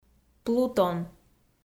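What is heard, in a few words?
"плутон", Komi, female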